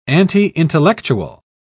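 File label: "anti-intellectual", English, British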